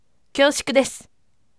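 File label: voice, firm; kyoushuku desu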